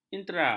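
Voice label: "intra", Malay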